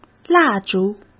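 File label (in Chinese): "蜡烛", Mandarin Chinese; hsk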